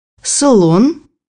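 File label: "elephant", Russian; bukvar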